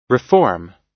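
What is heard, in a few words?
"reform", US English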